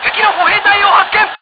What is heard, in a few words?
Infantry spotted, Japanese; BF1942